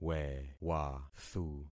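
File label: "wewathu", concatenated syllables; Affixing experiment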